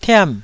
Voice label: "tiam4", taiwanese Syllables